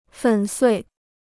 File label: "粉碎", Chinese